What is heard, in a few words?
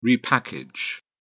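"repackage", English, British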